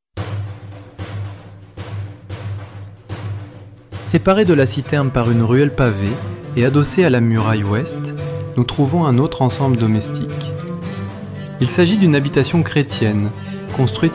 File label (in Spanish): audioguia frances; Ruta audioguiada; Français